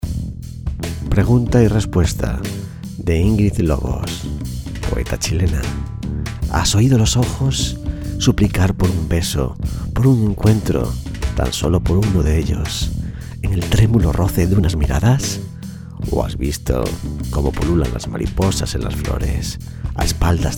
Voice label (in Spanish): poema romantico; pregunta